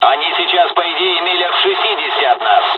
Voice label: dialog, dialogs russian; War Thunder

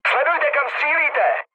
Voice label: player friendly fire, speech cze, mood low

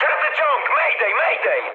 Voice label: damaged engine mood high, speech pol; War Thunder

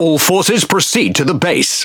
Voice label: Pirate Speech Quick Commands Enemy Base; World Of Warships

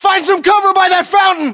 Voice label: order cover fountain, US

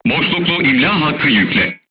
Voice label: voice message load HEAT, turkish

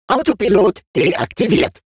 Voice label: robot Autopilot Disabled; World Of Warships

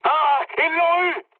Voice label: aircraft destroyed mood high, speech fr

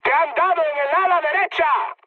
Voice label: right wing, mood med, speech; War Thunder

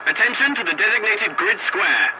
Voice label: voice message attention to point, english; War Thunder

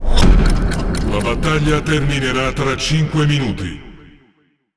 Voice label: It Speech Timer; World Of Warships

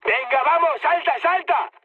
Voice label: bailout, mood high, speech; War Thunder

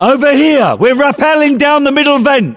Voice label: voiceovers, US; english

